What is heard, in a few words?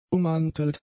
"ummantelt", German; adjectives